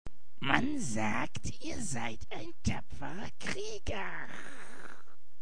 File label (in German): Komisch - Krieger; Man sagt, Ihr seid ein tapferer Krieger